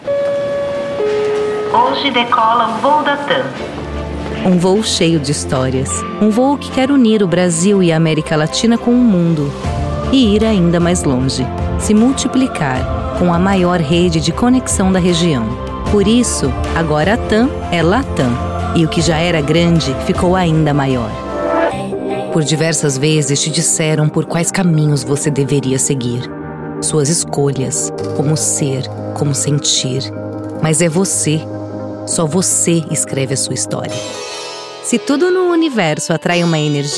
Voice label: Demo Portugues